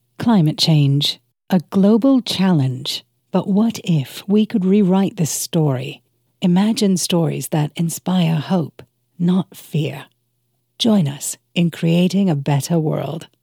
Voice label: TransAtlantic